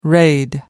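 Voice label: "raid", English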